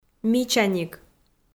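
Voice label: "мичаник" Komi, female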